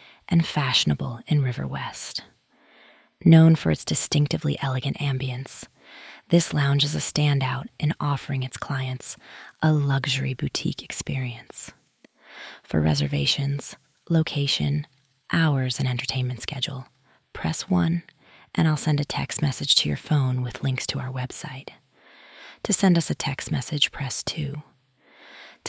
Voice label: Nightclub Female Romance Novel